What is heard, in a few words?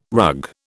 "rug", British